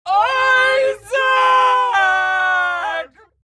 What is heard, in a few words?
Mom Vox Death